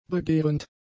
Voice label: "begehrend", German; adjectives

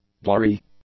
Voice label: "blurry", English; adjectives

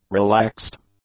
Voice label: "relaxed", English; adjectives

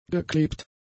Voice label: "geklebt", German; adjectives

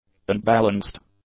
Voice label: "unbalanced", English; adjectives

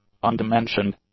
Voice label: "undimensioned", English; adjectives

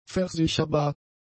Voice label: "versicherbar", German; adjectives

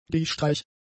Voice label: "geistreich", German; adjectives

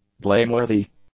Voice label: "blameworthy", English; adjectives

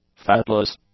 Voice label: "fatless", english; adjectives

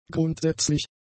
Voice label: "grundsätzlich", German; adjectives

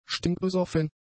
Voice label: "stinkbesoffen", German; adjectives

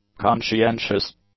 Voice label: "conscientious", English; adjectives

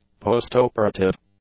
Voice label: "postoperative", english; adjectives

